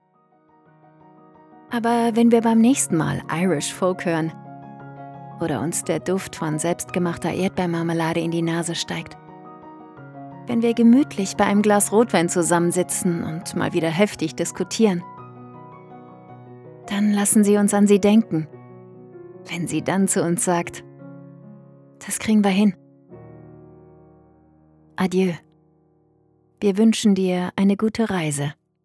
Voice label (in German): Trauerrede